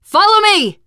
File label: follow me, teengirl, voice